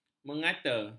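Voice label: "mengata", Malay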